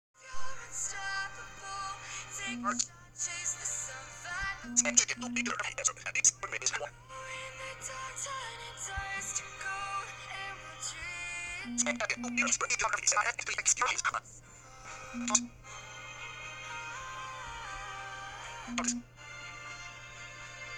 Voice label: workout voice; 「Start workout.」と言ってくれる; スマートウォッチ（GT Runner）; ワークアウトとして、屋外ランニングを選択; たまに、この音声が途切れ途切れになることがある。